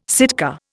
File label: "Sitka", Indian